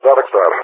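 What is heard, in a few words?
"Darkstar", English speech; Allied Flight; CallSign; DCS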